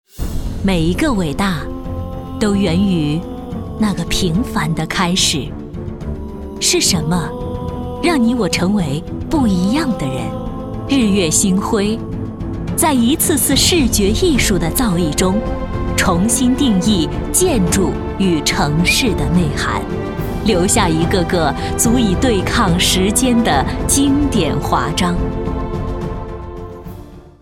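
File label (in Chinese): 专题大气; 平凡的开始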